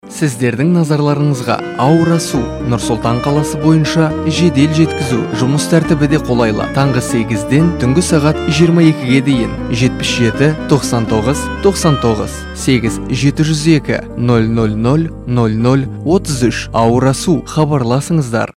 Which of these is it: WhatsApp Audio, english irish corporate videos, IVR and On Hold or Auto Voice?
WhatsApp Audio